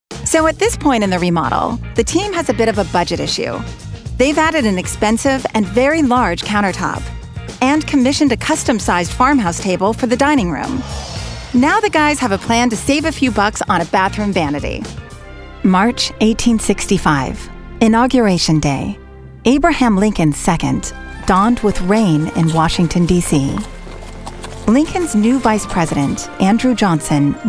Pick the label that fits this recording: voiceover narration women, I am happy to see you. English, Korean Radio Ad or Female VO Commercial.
voiceover narration women